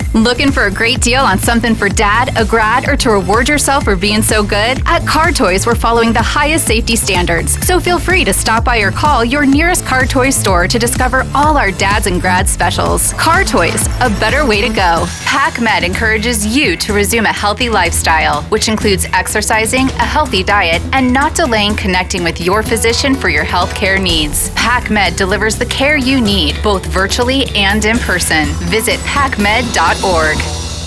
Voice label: COMMERCIAL; STICKERSOUND